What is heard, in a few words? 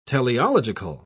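"teleological", British English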